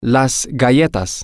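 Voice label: the cookies, Spanish, male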